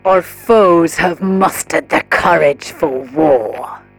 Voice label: advisor notification enemy declared war, militarist; Stellaris; ethics